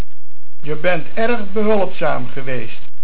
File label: Hulp, Dutch